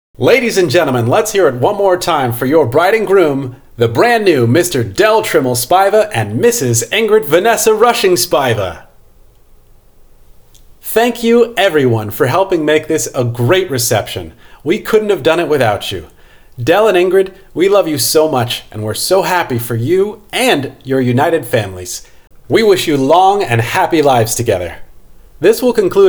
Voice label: Farewell Announcement